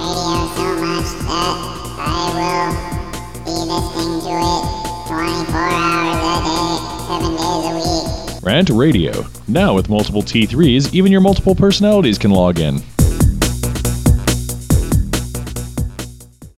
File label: Promo Voice In Head; RantRadio; RantMedia; Station Promos-Listener Submitted